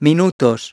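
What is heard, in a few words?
"minutes", English; iOS 4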